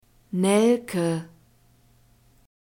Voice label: "nelke", german; nouns gender feminine